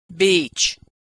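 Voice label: "beach", American English; beach beech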